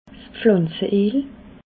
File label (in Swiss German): "pflanze eel", alsace